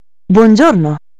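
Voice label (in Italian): "buongiorno", Italian; preghiere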